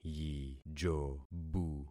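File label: "yijobu", concatenated syllables; Affixing experiment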